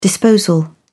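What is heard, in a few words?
"disposal", British English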